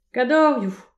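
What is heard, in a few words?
"kadoriou", Breton; petit precis de prononciation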